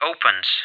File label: opens, English, CoDriver